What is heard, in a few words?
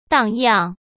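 "荡漾", Mandarin Chinese; hsk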